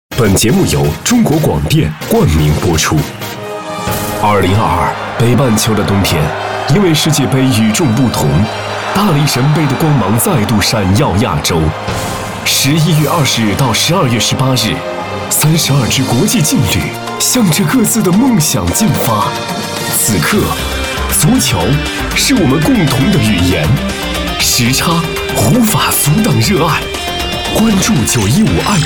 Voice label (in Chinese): 男 电台片头 世界杯冠名大片头